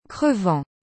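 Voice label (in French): "crevant", French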